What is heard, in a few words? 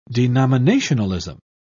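"denominationalism", British English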